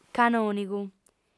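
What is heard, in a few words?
"canònigu", female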